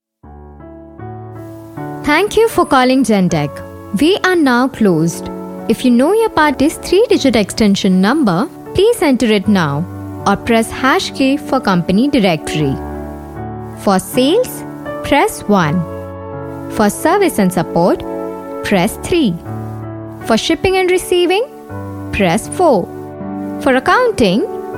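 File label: ivr, English; gentek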